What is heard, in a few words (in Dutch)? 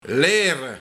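"Leirre", Luik, Lederen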